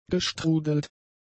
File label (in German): "gestrudelt", german; adjectives